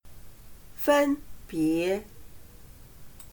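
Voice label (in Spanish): "分别", chino mandarin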